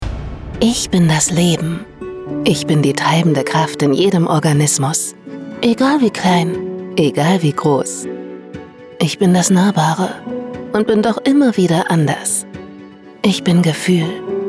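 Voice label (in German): Imagefilm; das Leben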